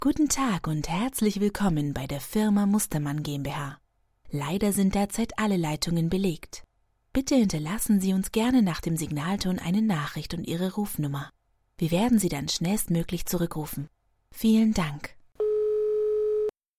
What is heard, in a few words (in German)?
Telefonansage